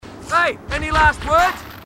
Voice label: marine aussie; h2dialogue